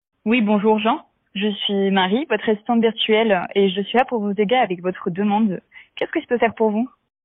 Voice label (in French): voix hybride; Voxinia